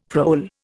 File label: "prole", Indian; Dictionary